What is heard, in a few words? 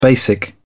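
"basic", British English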